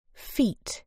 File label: "feet", uk